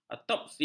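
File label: "autopsi", Malay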